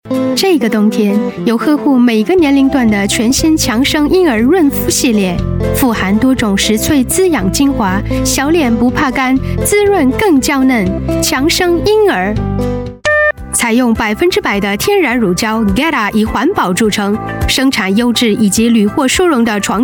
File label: chinese voice over female commercial